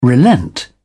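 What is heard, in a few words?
"relent", British English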